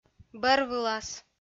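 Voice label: "бӧрвылас", Komi-Zyrian, female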